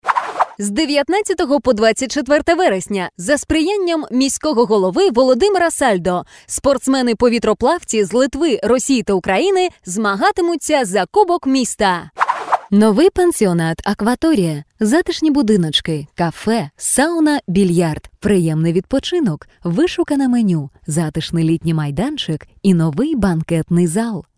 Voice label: UKR